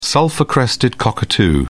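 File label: "sulphur-crested cockatoo", British English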